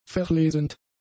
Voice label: "verlesend", German; adjectives